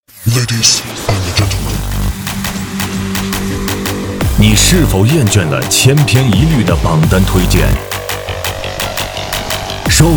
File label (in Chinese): 男 片花 TOP MUSIC